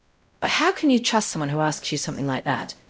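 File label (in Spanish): Voice Acting, es, female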